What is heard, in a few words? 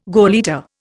"gauleiter", Indian